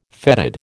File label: "fetid", American